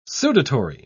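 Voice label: "sudatory", British English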